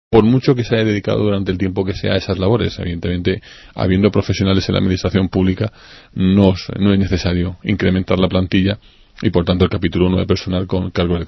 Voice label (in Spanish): noticias